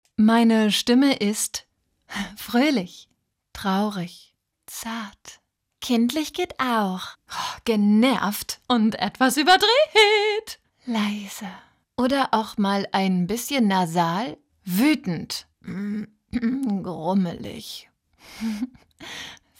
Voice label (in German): intro stimme